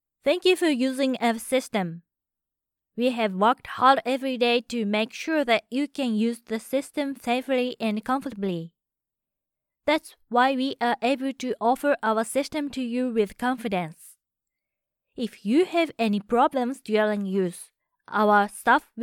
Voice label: english commercial

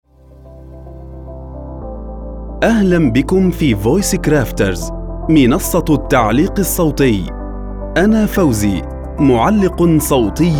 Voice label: arabic commercial

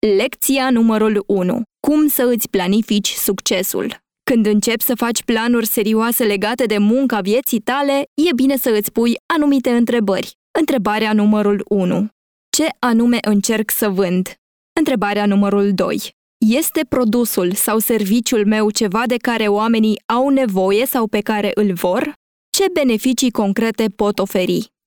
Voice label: romanian e-learning

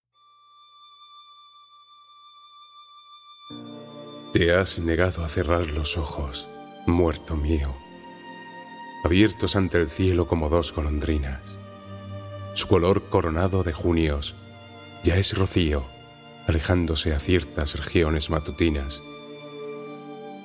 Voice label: spanish narration

